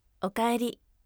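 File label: "okaeri", firm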